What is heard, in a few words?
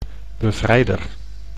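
"bevrijder", Dutch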